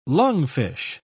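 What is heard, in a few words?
"lungfish", British English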